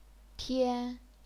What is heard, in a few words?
"tian1", chinese pinyin